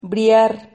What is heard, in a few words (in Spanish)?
"briar", Silabas